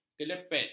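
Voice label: "kelepet", Malay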